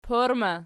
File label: "porma", Archi